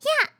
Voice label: attack, RPG Voice; RPG Voice Starter Pack